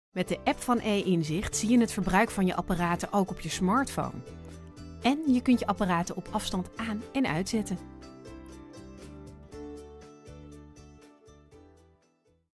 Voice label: voice over; essent e-inzicht